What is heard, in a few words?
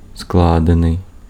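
Ukrainian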